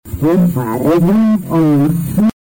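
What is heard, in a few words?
"portare", Italian; Portare “don”, amici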